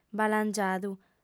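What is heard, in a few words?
"balangiadu", Sardinian, female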